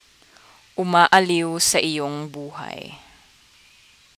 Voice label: tagalog